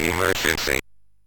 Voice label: MOS6581R3, Electronic Speech Systems